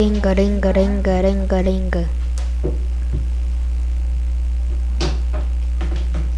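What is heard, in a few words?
"ring", spoken word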